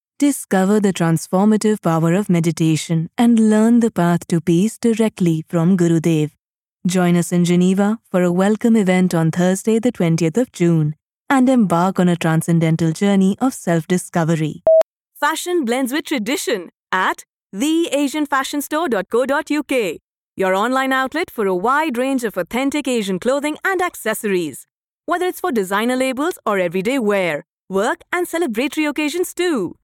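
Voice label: ADS english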